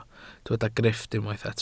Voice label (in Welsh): "grifft", Welsh